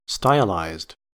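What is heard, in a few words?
"stylized", US English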